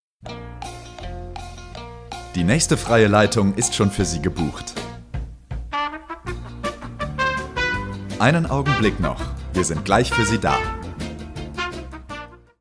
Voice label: Telefonansage

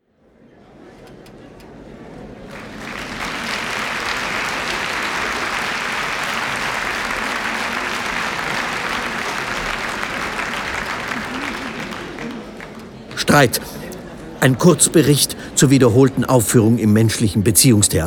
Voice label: Hörszene; wohin & wie weit; streit; Lorenz Maiehofer

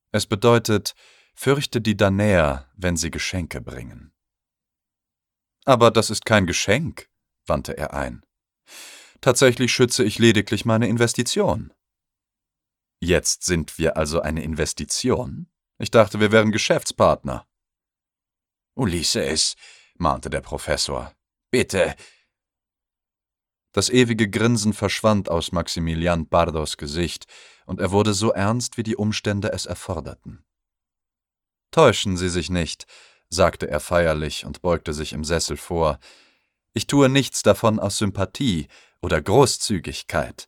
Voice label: Hörbuch; Die letzte Offenbarung

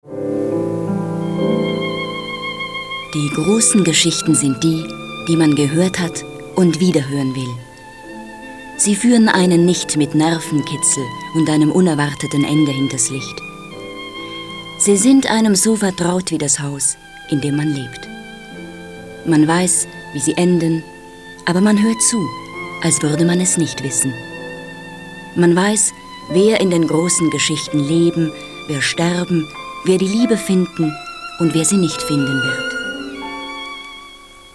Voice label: sprachdemo; Alles ist heil im Geheimnis; Sprecherin

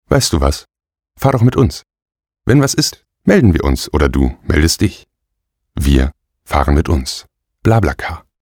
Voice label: Werbung

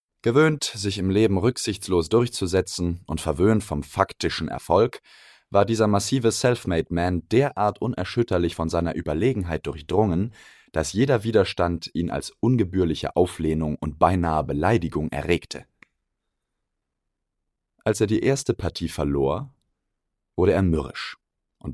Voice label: Hörbuch; Die Schachnovelle

